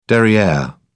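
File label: "derriere", British English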